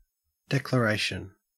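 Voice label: "declaration", Australian English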